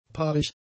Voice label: "paarig", German; adjectives

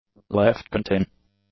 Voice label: "left-contin", english; adjectives

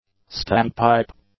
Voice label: "standpipe", english; nouns

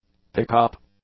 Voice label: "pickup", English; nouns

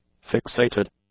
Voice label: "fixated", english; adjectives

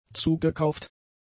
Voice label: "zugekauft", German; adjectives

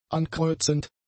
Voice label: "ankreuzend", German; adjectives

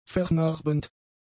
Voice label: "vernarbend", German; adjectives

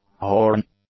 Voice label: "horn", English; nouns

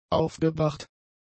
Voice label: "aufgebracht", German; adjectives

